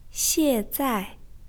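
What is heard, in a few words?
"xie4zai4", Chinese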